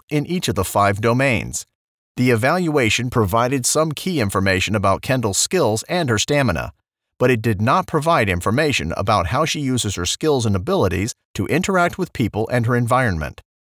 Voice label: eLearning - Early Child Development